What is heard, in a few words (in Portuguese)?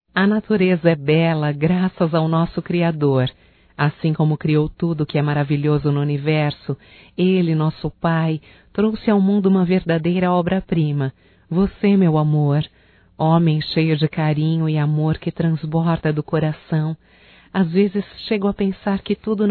AGRADECIMENTO ROMANTICO, Só Voz, fem; Telemensagens; AGRADECIMENTOS